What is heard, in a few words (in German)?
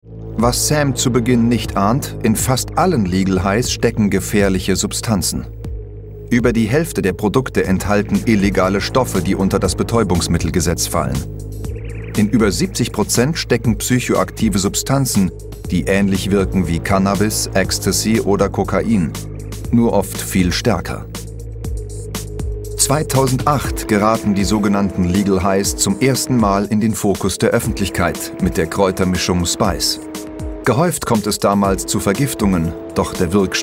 doku; keller8.0; legalhighs